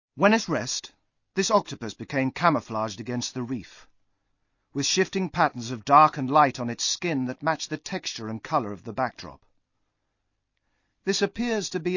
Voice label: Narration